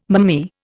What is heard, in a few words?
"mammee", Indian